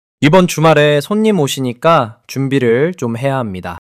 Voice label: neutral

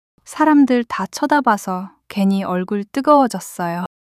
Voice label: shy

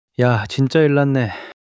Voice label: fluster

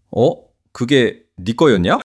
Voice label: fluster

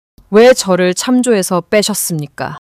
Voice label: anger

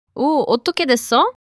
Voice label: neutral

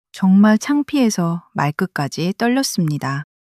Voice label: sadness

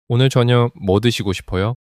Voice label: neutral

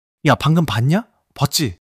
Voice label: excitement

